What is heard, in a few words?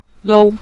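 "lou", Mandarin, neutral tone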